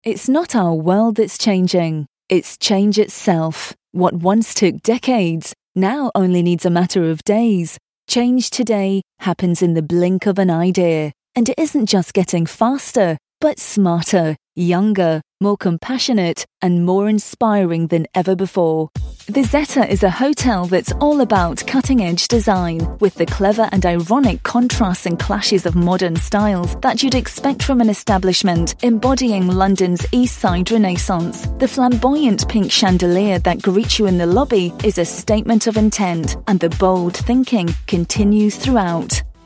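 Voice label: english narrative, female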